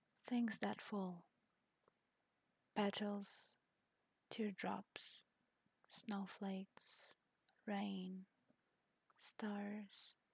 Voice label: sweet, short; Things that Fall